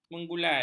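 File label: "menggulai", Malay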